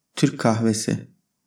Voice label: "türk kahvesi", Turkish

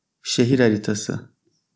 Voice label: "şehir haritası", Turkish

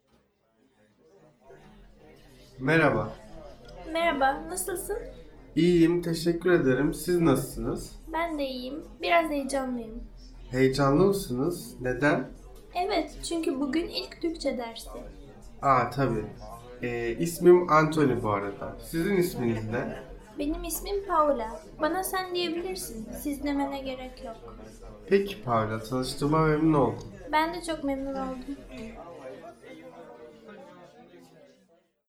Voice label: First Conversation Slower

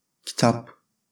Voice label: "kitap", Turkish

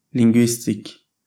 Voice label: "lingüistik", Turkish

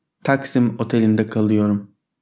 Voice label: "taksim otelinde kalıyorum", Turkish

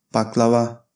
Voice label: "baklava", Turkish

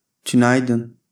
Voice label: "tünaydın", Turkish

